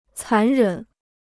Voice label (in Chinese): "残忍", Chinese